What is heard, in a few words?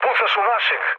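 Serbian player friendly fire mood low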